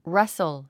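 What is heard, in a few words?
"rustle", English word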